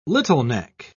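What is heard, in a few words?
"littleneck", British English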